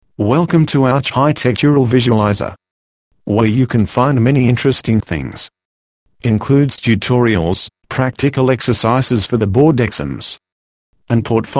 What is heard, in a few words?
voice over home website